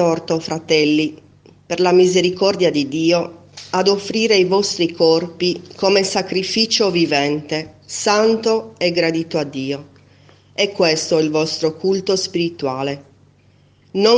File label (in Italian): Lettura Breve Lodi e Prima Lettura S. Messa